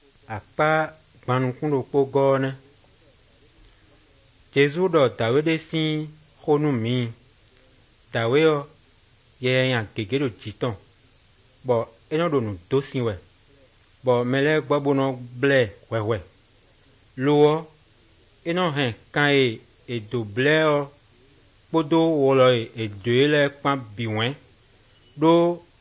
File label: good news, fon fongbe bible drama